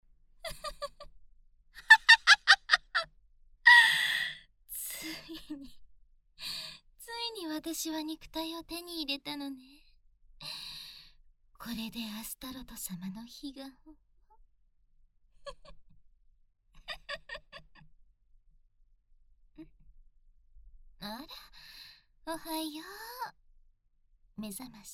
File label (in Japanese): BGM効果音なし